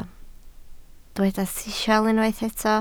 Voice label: "sisial", Welsh

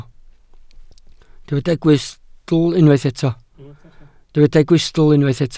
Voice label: "gwystl", Welsh

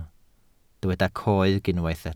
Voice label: "coeg", Welsh

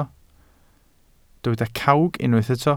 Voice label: "cawg", Welsh

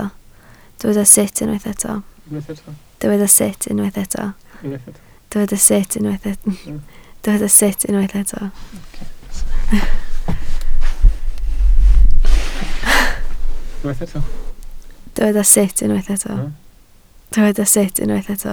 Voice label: "sut", Welsh

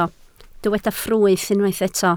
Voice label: "ffrwyth", Welsh

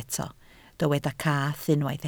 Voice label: "cath", Welsh

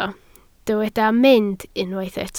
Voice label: "mynd", Welsh

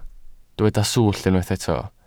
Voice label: "swllt", welsh

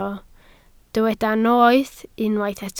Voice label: "noeth", Welsh

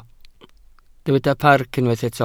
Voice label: "parc", Welsh

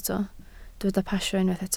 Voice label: "pasio", Welsh

